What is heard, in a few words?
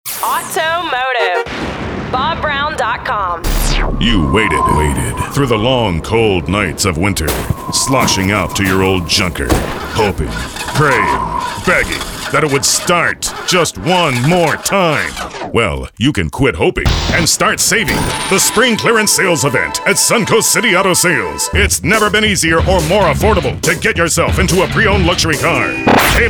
car spot